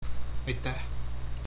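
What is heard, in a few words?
thanks, Estonian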